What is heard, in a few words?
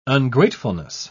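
"ungratefulness", British English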